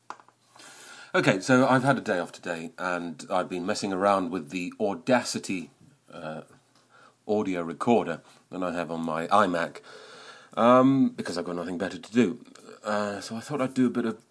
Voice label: reversing speaking